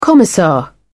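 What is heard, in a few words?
"commissar", English, British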